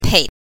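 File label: "pei", Mandarin Chinese, neutral tone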